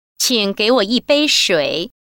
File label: a glass of water please mandarin